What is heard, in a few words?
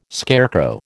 "scarecrow", American